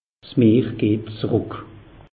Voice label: "meer geht zeruck", alsace; meteo

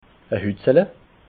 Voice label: "hutzala", alsace

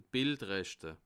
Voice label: "bildrechte", alsace

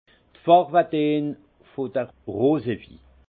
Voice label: "farwedeen", alsace; vin; teintes des vins roses

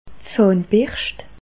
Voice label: "zahnberscht", alsace

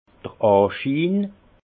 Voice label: "anschiin", alsace; vin; l’apparence

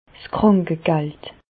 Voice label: "krankegald", Bas Rhin; indemnités journalières; medecine

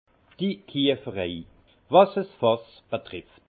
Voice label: "kieferei", alsace; tonnellerie

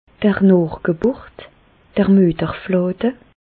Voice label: "noochgeburt", Bas Rhin; medecine; placenta

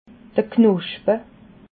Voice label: "knoschpe", alsace

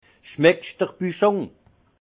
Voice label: "buschung", Alsace; vin

